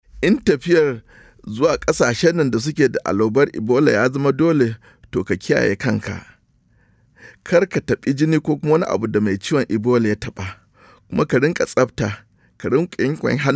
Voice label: Hausa Message, radio spots; ebola